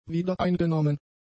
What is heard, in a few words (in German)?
"wiedereingenommen", German; adjectives